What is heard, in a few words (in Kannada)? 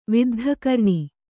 "ವಿದ್ಧಕರ್ಣಿ", Kannada